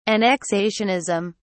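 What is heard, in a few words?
"annexationism", British English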